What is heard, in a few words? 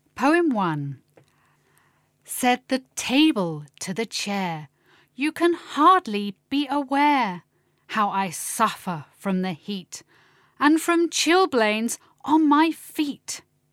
Poem; poems and songs